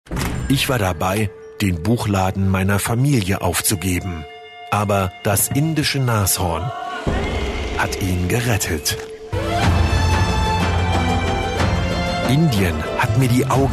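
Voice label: German, male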